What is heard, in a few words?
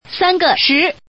Woman; ningxia